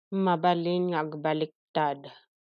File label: Ilocano